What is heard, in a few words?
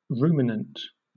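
"ruminant", British English; pronunciations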